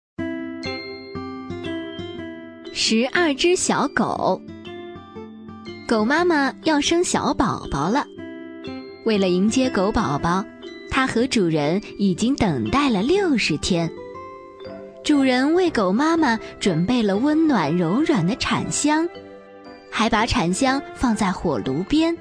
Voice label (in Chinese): 女 儿童故事; 十二只小狗